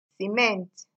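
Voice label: "cement", Nigerian Pidgin, female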